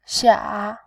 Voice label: "xia3", voice

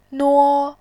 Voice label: "nuo1", voice

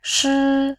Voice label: "shi1", voice

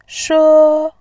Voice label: "she1", voice

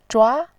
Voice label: "zhua2", voice

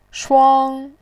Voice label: "shuang1", voice

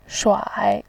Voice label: "shuai3", voice

